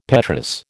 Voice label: "petrous", American